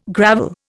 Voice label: "gravel", Indian